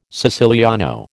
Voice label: "siciliano", American